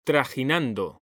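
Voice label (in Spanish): "trajinando", Spanish; mekanta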